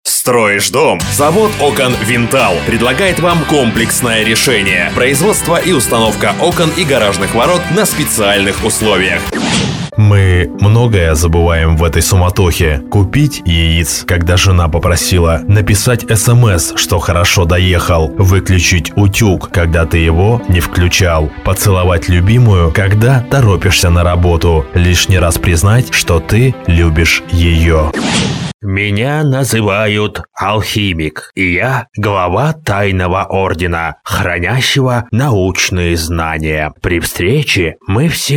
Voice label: dictor